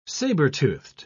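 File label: "saber-toothed", British English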